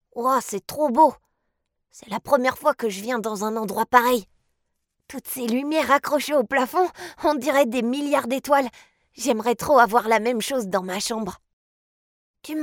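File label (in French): E-learning, Comédienne, Voix off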